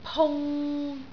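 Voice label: "pung3", Cantonese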